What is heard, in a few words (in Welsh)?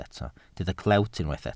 "clewt" Welsh